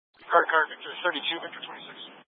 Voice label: Tactical Incidents, scanner